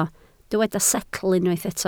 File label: "setl", Welsh